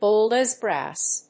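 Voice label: "bold as brass", English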